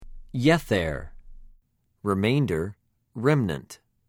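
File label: "יֶ֫תֶר", Hebrew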